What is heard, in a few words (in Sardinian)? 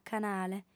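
"canale", female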